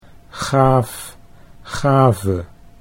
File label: "gaaf gave", Dutch